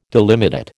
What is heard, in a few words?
"delimitate", American